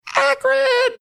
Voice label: saying akron high pitched

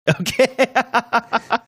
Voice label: laughing okay

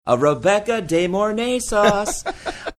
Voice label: saying a rebecca demornay sauce sing songy

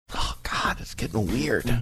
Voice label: quietly saying oh god its getting weird

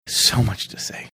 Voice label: quietly saying so much to say

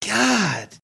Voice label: drawn out god

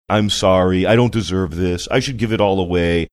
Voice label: saying im sorry i dont deserve this funny

